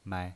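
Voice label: "mai", pinyin voice, Mandarin Chinese, neutral tone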